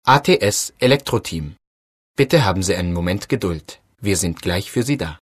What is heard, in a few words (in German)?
ansage vor melden; ats elektroteam